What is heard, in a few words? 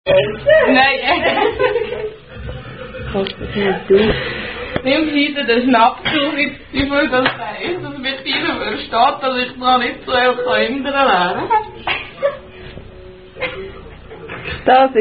Trinkspiel, German Card Drinking Game